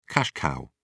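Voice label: "cash cow", British English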